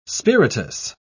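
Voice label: "spiritous", British English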